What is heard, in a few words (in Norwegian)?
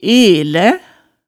"elæ", hemsedalsmaalet